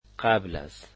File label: "before", Dari